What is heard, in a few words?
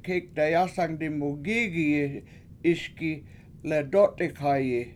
The Hot Cake That I Tasted Burned Me, washo; kEEk dayAsang dimugIIgiyi 7iSgi ledOt7ikhayi